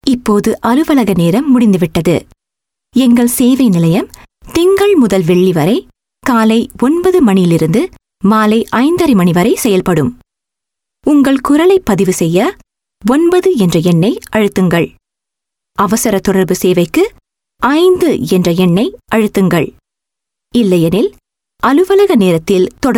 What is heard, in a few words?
Tamil IVR, India Female